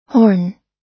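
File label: "horn", US English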